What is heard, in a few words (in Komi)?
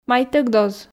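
майтӧг доз, Komi, female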